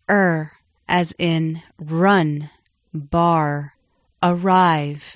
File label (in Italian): suono r en